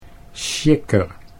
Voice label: "sjikker", Dutch